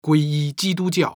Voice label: chinese religious; age2

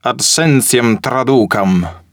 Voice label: latin Religious; age2

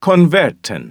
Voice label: english Religious; age2

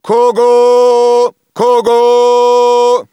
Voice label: Gaelic Military; age2

